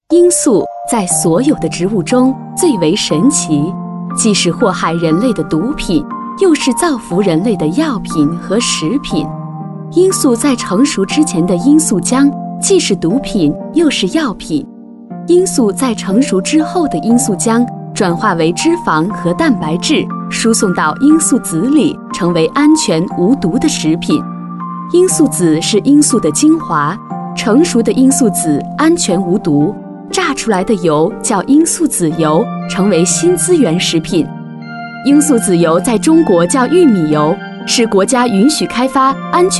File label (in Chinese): 女, 专题, 产品讲解, 产品介绍, 优雅, 沉稳